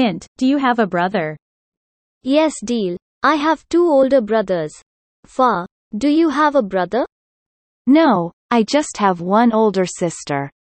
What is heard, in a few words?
Family conversation